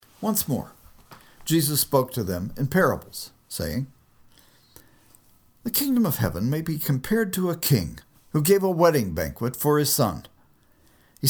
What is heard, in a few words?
storytelling